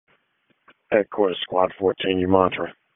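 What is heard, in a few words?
Fire Department HQ Dispatch; RFD; wake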